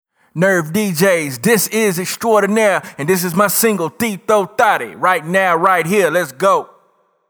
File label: dj drop; EXTRAORDINAIRE; nerve